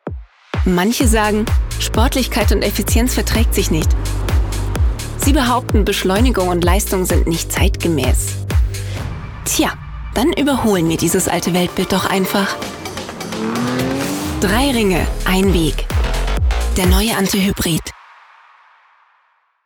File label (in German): Werbung Automobil; LOFT VOICES